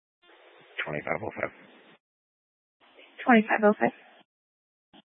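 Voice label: Sheriff Dispatch, scanner audio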